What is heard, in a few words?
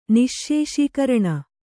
"ನಿಶ್ಶೇಷೀಕರಣ", Kannada